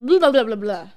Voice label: booblahblahblahblah, Voice gibbrish